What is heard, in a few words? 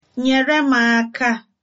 help, igbo